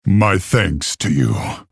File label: male orc thanks